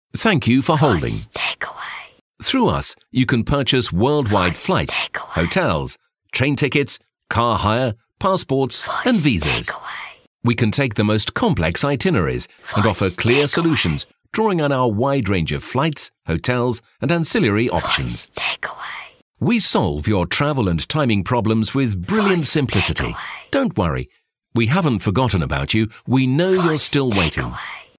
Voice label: Travel Agents Rich Male